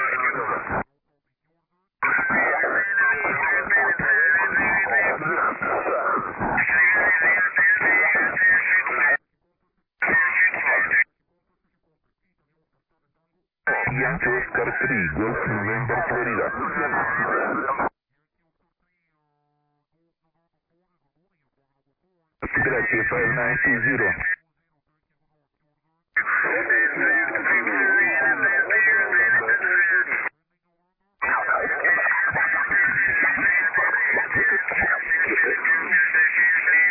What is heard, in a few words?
LSB 7MHz; CQWW SSB